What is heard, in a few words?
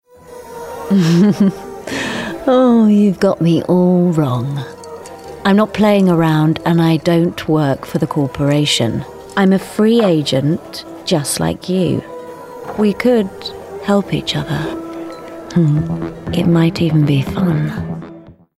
Gaming Femme Fatale Natural Voice; Gaming Character – Femme Fatale Natural